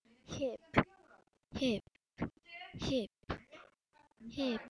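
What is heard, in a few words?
"hip", spoken word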